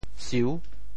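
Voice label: "siu6", Teochew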